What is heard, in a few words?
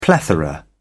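"plethora", British English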